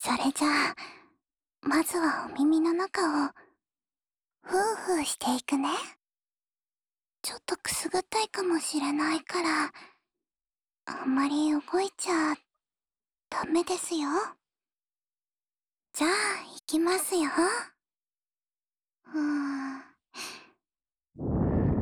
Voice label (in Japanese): こしょこしょ話されながら右の耳かき, ASMR